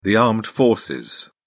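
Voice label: "armed forces", British English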